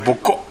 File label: "bokko", minamiyamagata